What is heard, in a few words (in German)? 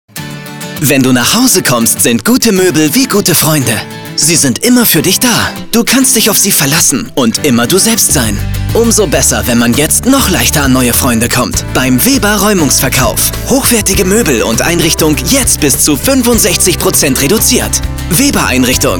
funkspot raeumungsverkauf; weber